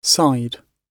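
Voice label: "side", British English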